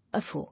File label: "Afore", English